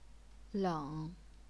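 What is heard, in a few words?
"leng", Chinese, third tone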